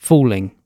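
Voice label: "falling", British English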